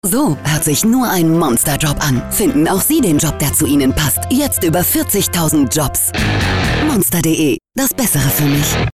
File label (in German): Werbung; Monster